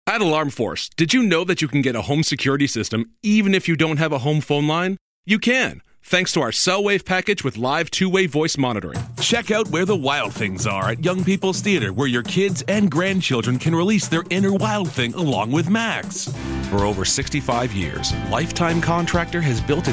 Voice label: english canadian commercial; diverse

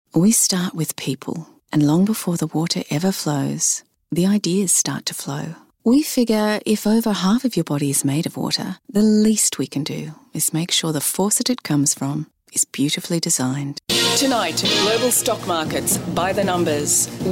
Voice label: english australian commercial

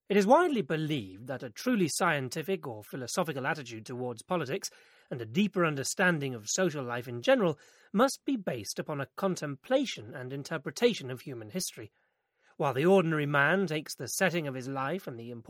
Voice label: english british audiobooks

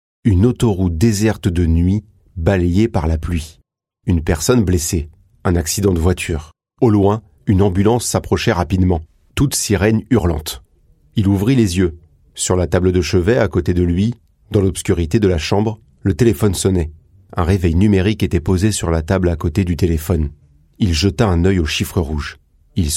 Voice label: french narration

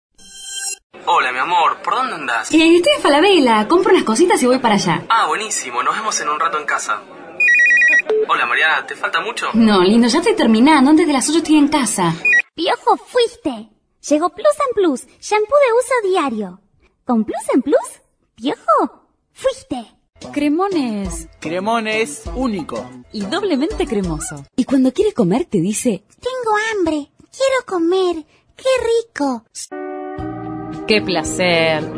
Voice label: spanish argentinian others

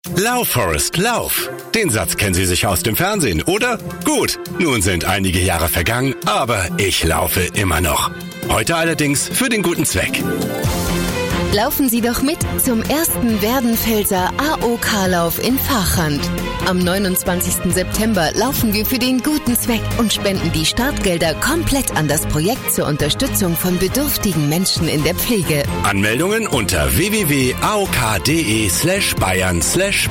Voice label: german promos

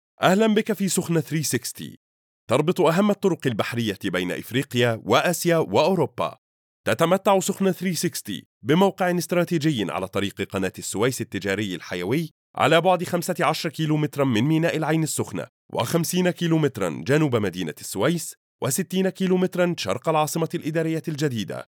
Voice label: arabic corporate videos

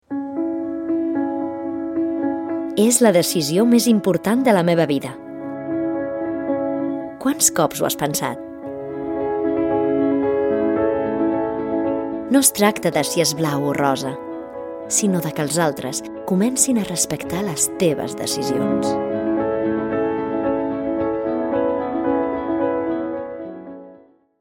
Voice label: catalan commercial

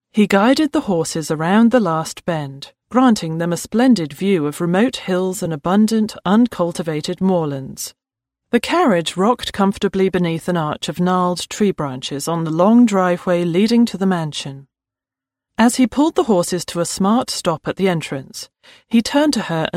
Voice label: english british audiobooks

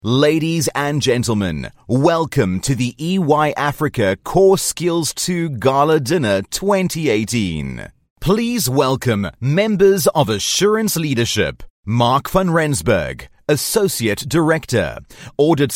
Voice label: english international others

